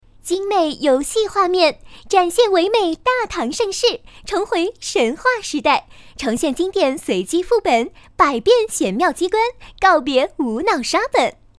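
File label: chinese mandarin female ivr; voice demos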